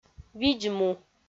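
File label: "видз-му", Komi, female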